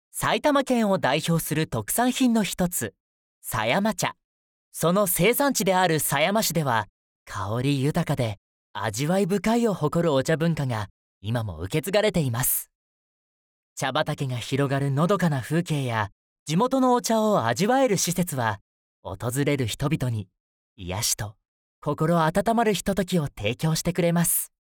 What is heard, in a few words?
male